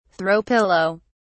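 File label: "throw pillow", British English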